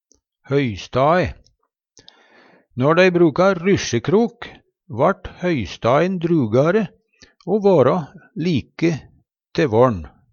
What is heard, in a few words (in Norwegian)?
hoystae, Numedalsmål